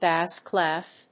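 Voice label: "bass clef", English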